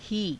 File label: "hii", Hindi